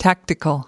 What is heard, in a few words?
"tactical", us